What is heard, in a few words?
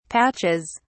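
"patches", British English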